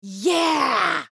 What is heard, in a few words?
woman excited cheers and phrases says "ya"